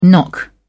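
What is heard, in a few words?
"knock up", English, British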